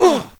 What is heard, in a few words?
human hit; wesnoth